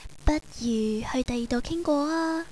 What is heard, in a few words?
External, Cantonese girl; ICQ